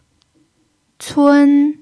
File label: "cun1", chinese